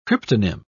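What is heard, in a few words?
"cryptonym", British English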